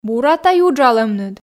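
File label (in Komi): бура тай уджалӧмныд, Komi, female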